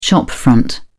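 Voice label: "shopfront", British English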